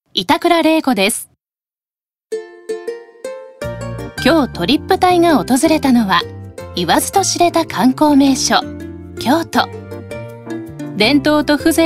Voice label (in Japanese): ナチュラル